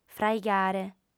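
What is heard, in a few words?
"fraigare", female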